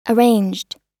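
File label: "arranged" US English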